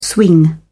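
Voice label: "swing", British English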